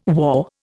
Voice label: "war", Indian